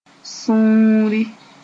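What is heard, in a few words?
"sũuri", Mooré; heartdeterminationanger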